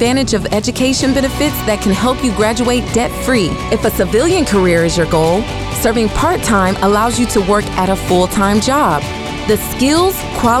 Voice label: Guard Lifestyle Radio English